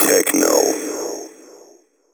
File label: techno male 140; Vocals